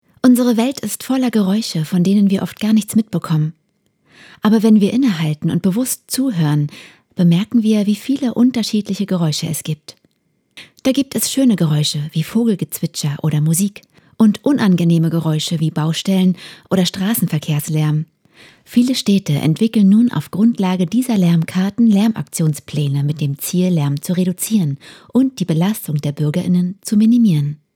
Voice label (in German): Erklärfilm - Lärm, Sprecherin